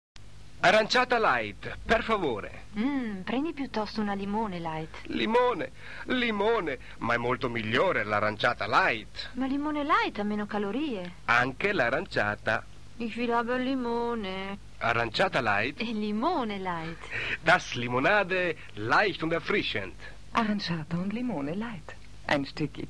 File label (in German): Limo Werbung